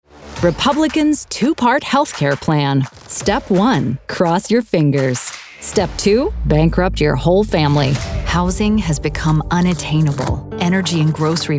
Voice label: english american political ads

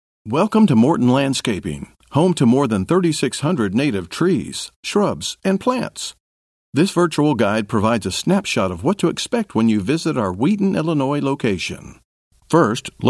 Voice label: english american e-learning

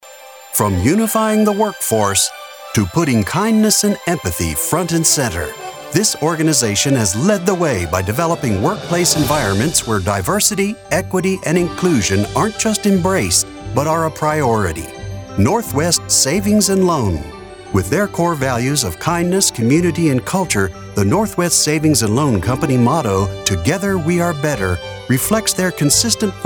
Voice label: english american announcements

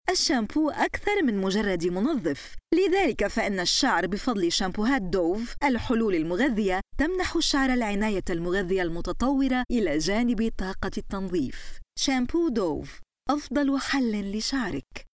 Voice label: arabic commercial

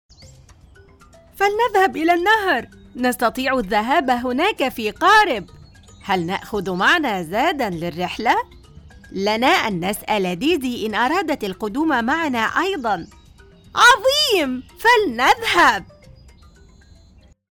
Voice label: arabic video games

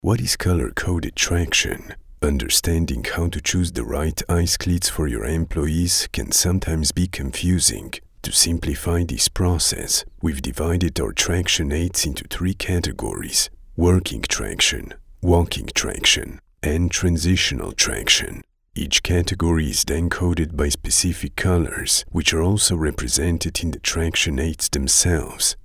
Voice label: english e-learning

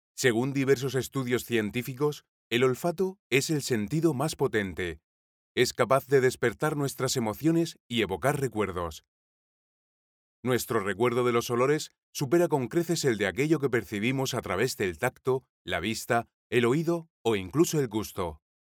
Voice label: spanish e-learning

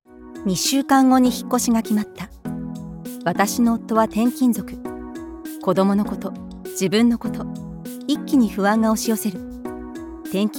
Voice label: japanese narration

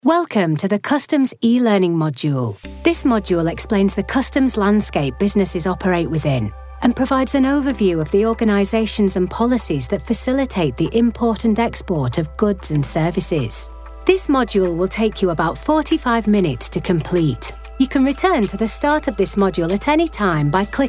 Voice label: english british e-learning; northern (Lancashire/Manchester/Liverpool)

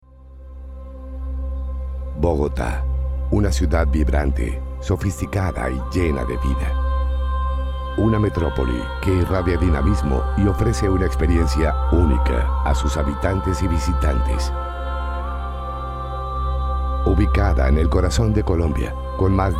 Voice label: spanish latin american corporate videos

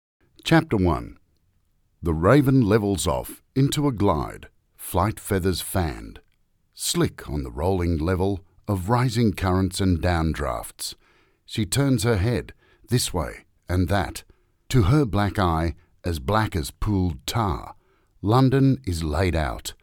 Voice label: english australian audiobooks

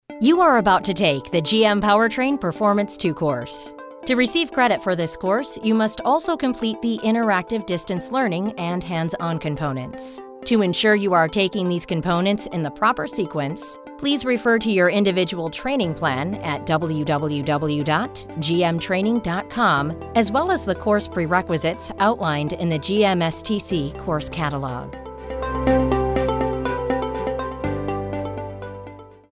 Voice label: English American corporate videos

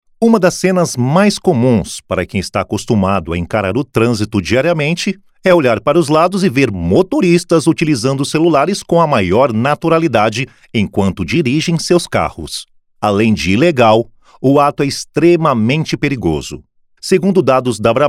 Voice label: portuguese brazilian corporate videos

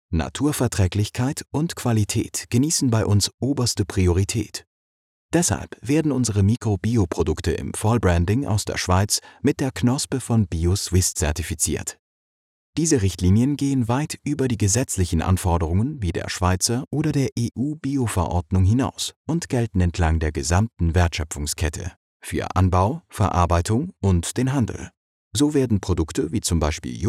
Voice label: german switzerland narration

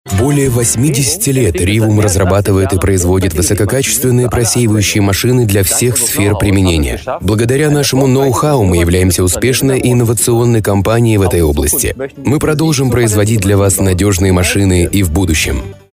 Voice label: russian corporate videos

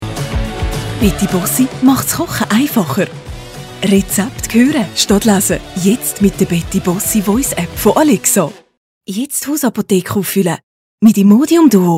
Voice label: german switzerland commercial; Englisch (International) Deutsch Deutsch (Schweiz); Österreich

